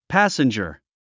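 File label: "passenger", English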